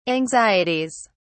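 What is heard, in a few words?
"anxieties", British English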